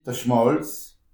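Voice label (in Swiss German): "schmalz", Alsatian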